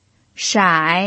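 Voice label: "shai" Mandarin Chinese, third tone, pinyin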